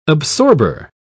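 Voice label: "absorber", British English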